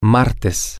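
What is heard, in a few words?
Tuesday, Spanish, male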